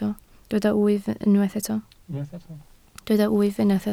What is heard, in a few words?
"wyf", Welsh